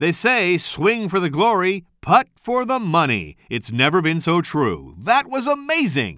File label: commentator